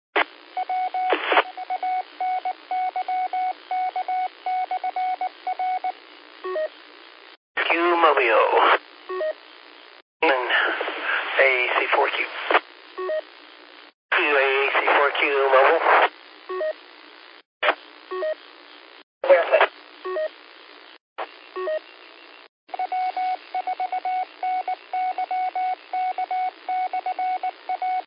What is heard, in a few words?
qso rec simplex logic